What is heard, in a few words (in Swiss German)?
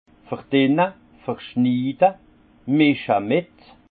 "verdinne", alsace; vin